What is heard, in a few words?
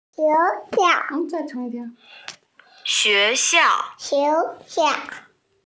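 "school", Mandarin, hearing-impaired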